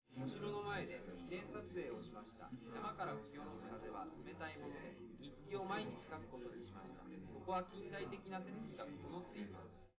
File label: male, live rec; SiSEC11; underdetermined